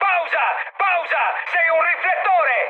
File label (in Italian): searchlite, mood high, speech it; War Thunder